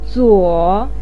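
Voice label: "zuo3", Mandarin Chinese pinyin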